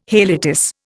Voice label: "haliotis", Indian

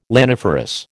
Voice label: "laniferous", American

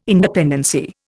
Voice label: "independency", Indian

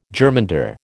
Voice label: "germander", American

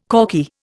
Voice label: "corky", Indian

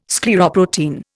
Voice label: "scleroprotein", Indian

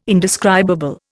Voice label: "indescribable", Indian

